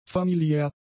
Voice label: "familiär", German; adjectives